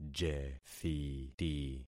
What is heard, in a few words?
"jethiti", concat syllables; Affixing experiment